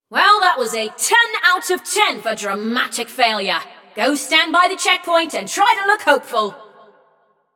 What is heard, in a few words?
Scrap Run Go To Checkpoint, Announcer; Flux